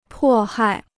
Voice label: "迫害", Chinese